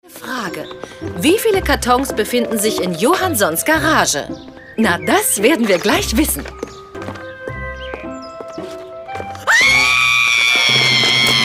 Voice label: strenge Mutter; Cartoons

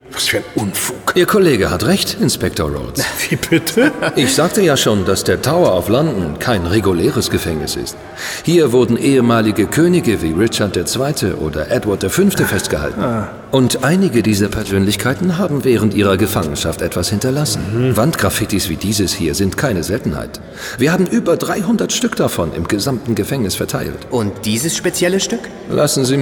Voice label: Hoerspiel autoritaer; Scotland Yard

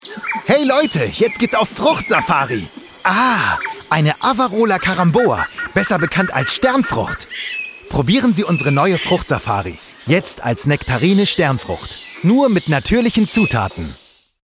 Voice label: Spot; Fruchtsafarie

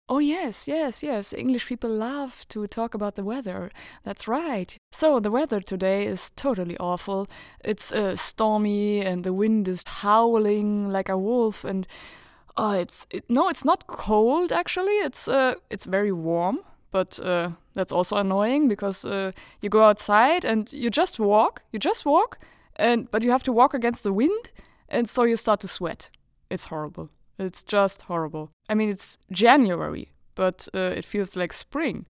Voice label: Englisch

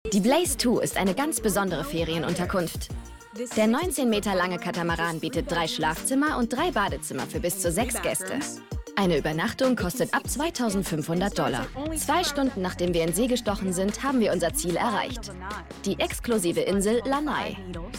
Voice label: Doku; Ferienwohnungen

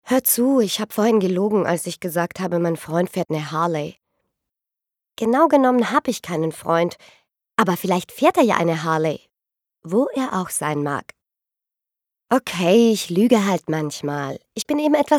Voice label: Monolog Film

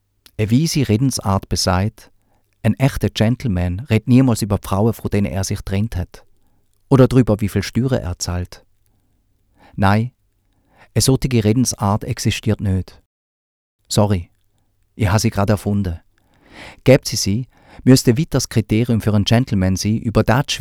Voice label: Schweizer Deutsch; Murakami; Hörbuch

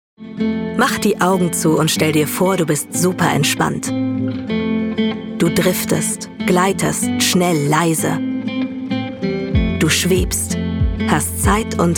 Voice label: Werbung

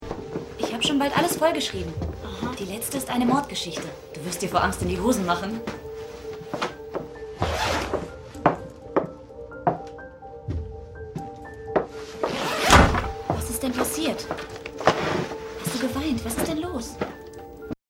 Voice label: Synchron Mordgeschichte; junge Erika; Mord in Fjällbacka

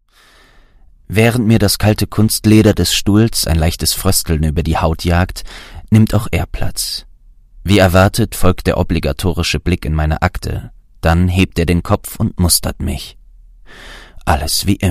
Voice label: Hoerbuch

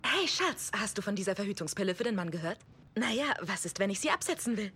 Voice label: Synchron werblich

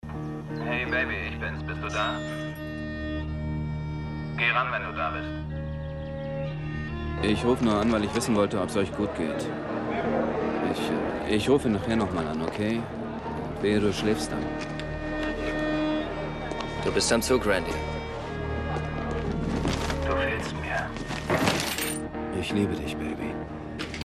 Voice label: Soldat am Telefon

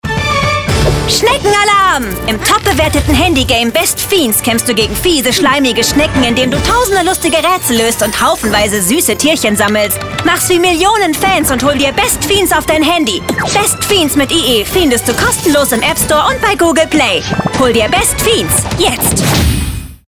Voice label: Funkspot

